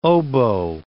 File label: "oboe", English word